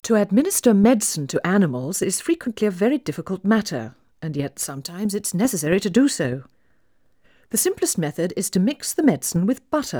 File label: Female speech English Mono output noised, 3bit